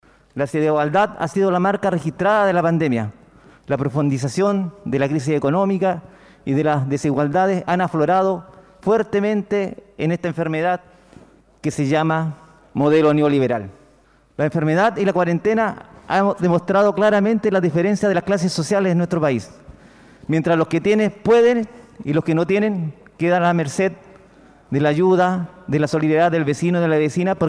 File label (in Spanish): Cuña; dip Moraga Imp Super Ricos rechazo en camara